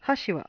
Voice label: "hashi" chopsticks